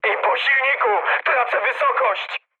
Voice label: damaged engine mood high, speech pol; War Thunder

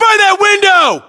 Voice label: US landmark near window, battlechatter, english

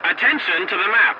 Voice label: voice message attention to point, english; War Thunder

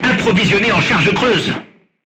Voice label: voice message load HEAT, crew tanks french

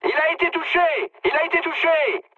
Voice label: aircraft destroyed mood high, speech fr

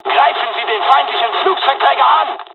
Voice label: bombing, dialogs german; War Thunder

